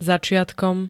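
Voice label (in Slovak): "začiatkom", Slovak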